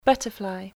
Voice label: "Butterfly", English